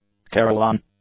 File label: "carillon", English; nouns